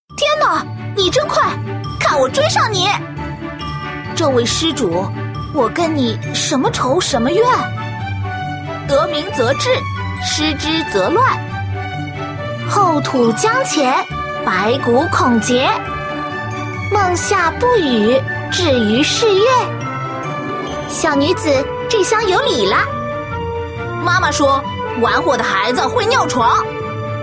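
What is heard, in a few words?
shota loli, female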